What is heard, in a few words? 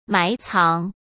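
"埋藏", Mandarin Chinese; hsk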